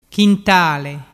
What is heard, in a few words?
"chintale", dialettu